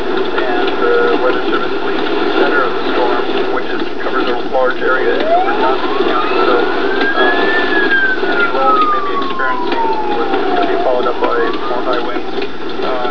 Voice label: report from Raleigh Weather over the scanner which in turn is interrupted by sirens from an approaching emergency vehicle; 1996; ...Weather Service now places the center of the storm, which covers a large area over John